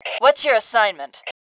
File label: bot, Fem; voice; Tribes2